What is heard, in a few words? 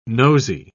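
"nosey", British English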